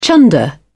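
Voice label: "chunder", British English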